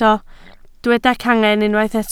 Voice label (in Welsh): "cangen", Welsh